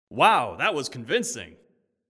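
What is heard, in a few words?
announcer convincing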